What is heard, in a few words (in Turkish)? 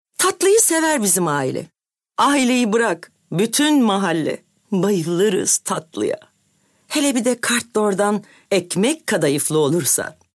Reklam Komik Esprili